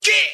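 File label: "get", male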